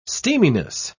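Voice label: "steaminess", British English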